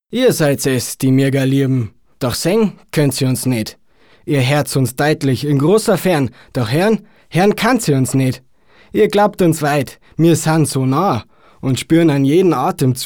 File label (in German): bayerisch, maenner